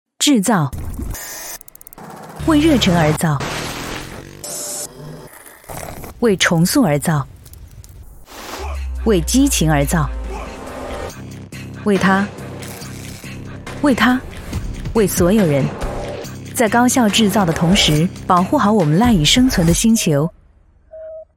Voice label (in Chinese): 女 专题科技类 自信时尚